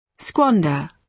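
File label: "squander", British English pronunciation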